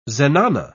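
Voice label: "zenana", British English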